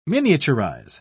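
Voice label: "miniaturize", British English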